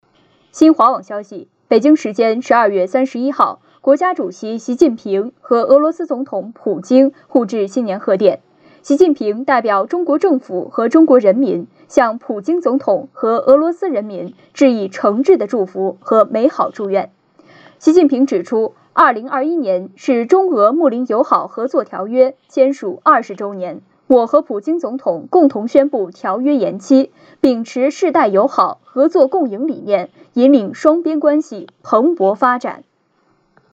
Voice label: 新闻正式播报

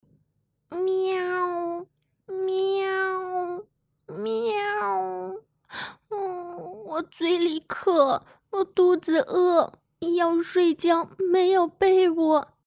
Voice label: 角色委屈饥饿可怜动画小猫小孩迷路的小花猫

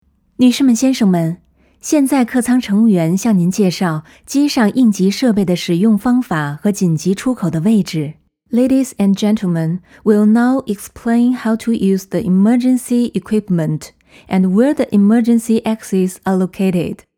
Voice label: 中英文机上安全广播

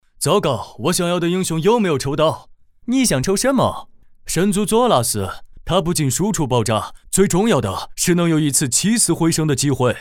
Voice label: 三角色精分外国人说中文; 抽卡成品

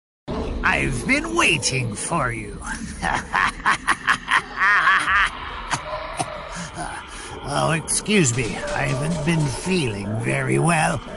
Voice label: 男美式英文游戏魔鬼角色音

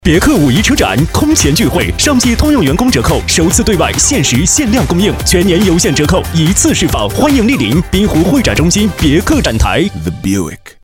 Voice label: 广告 展台; 别克

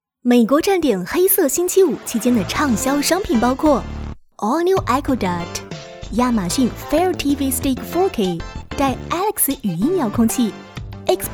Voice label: 科技展宣传片; 亚马逊

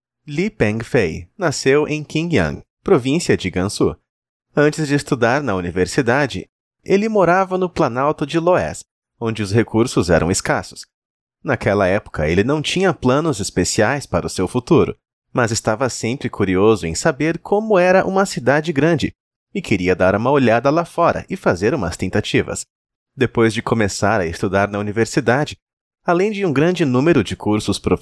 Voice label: 葡萄牙语男旁白

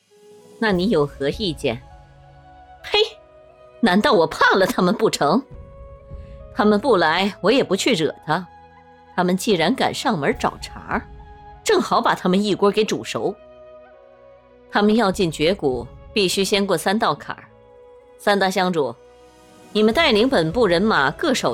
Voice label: 喜悦 女神; 冰魔姥姥

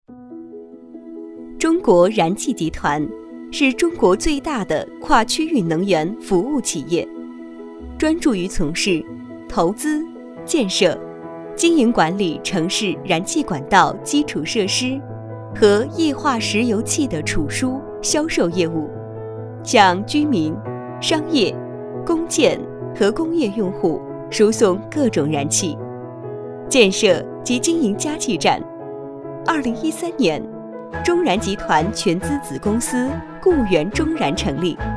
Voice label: 宣传片; 中国燃气集团

